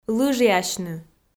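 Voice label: "лыжиасьны", Komi, female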